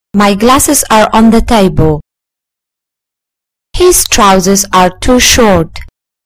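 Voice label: "my glasses", British English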